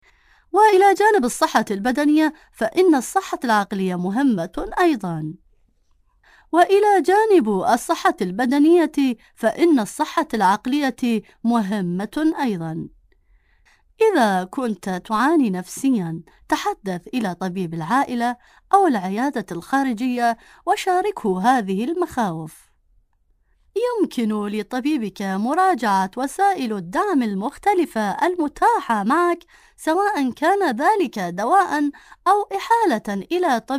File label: Arabic, Female, Adult